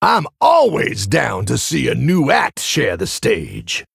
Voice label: male tauren idle